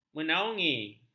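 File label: "menaungi", Malay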